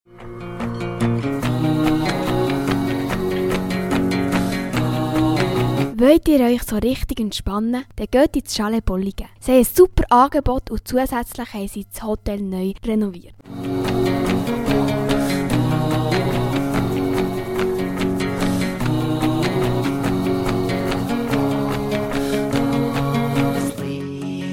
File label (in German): Werbejingle Chalet; Bolligen